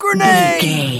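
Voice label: voice male soldier grenade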